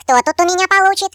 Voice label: Firepunch, Default ru; hedgewars